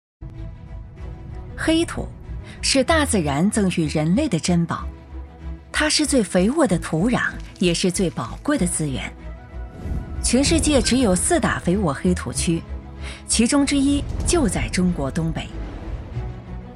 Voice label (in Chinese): 女 专题 宣传片; 黑土地